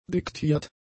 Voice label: "diktiert", german; adjectives

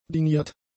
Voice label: "diniert", german; adjectives

